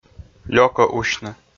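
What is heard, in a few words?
"лёкӧ усьны", Komi, male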